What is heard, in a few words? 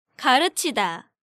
"Gareuchida", Korean